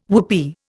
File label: "whoopee", Indian